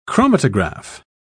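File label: "chromatograph", British English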